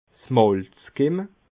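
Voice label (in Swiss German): "malzkimme", Alsatian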